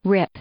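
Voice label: "rip", English, female; verb; i-ɪ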